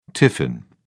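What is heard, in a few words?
"tiffin", British English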